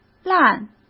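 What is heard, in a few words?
"烂", Mandarin Chinese; chinese-flashcards; hsk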